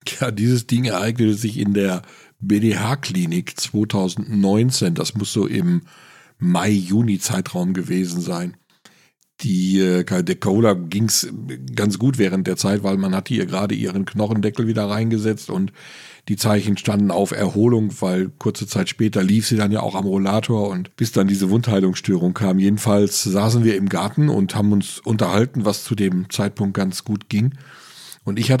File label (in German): bank telefonat